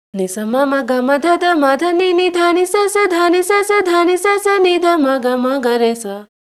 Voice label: voice, pitchshift 2; digidesign pro tools ts